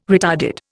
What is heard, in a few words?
"retarded", Indian